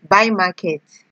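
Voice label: "buy market", Nigerian Pidgin, female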